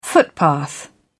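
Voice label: "footpath", en, uk British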